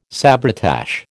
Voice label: "sabretache", American

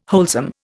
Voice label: "wholesome", Indian

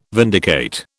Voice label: "vindicate", British

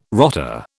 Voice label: "rotter", British

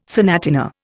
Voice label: "sonatina", Indian

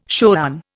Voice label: "shoran", Indian

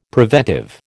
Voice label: "preventive", American

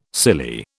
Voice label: "silly", British

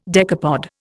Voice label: "decapod", Indian

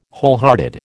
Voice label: "wholehearted", American

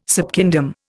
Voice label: "subkingdom", Indian

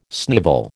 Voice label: "snivel", American

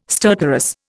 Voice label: "stertorous", Indian

